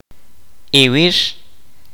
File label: "yesterday", kaqchikel